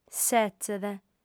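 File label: "setzet", lingua sarda, female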